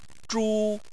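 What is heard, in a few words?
"zhu" Chinese, first tone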